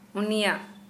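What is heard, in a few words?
Santali, female, his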